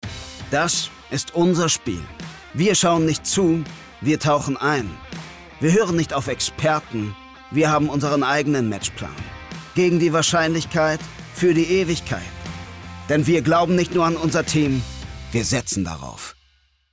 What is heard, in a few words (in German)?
Werbung Sportwetten